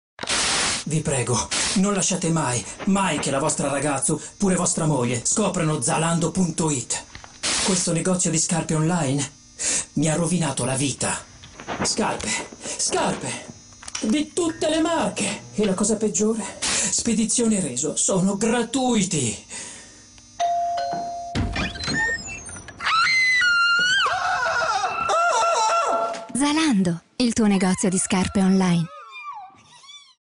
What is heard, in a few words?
tv spot, Lip-Sync (Synchron); Zalando